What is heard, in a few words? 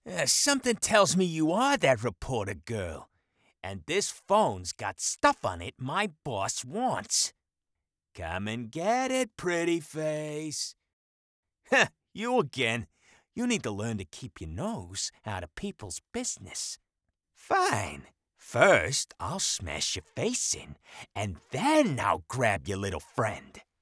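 New York Gangster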